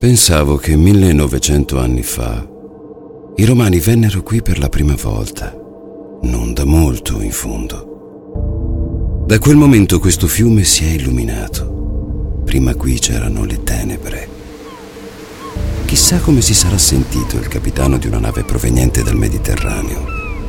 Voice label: italian audiobooks